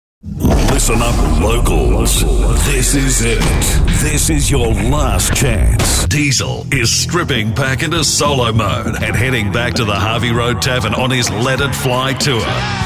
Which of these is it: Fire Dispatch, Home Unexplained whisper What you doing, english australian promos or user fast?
english australian promos